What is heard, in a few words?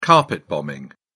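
"carpet bombing", English, British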